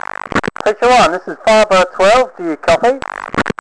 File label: chat